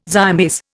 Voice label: "zymase", Indian